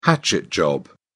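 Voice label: "hatchet job", British English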